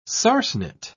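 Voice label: "sarsenet", British English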